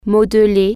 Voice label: form, french

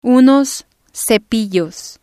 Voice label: unos cepillos, Spanish

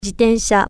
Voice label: bicycle, japanese

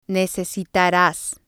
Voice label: "necesitaras", spanish

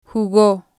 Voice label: "jugo", spanish

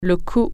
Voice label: "neck", French

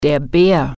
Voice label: "bear", german

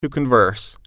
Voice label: "converse", English

